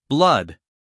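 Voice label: "blood", American English, male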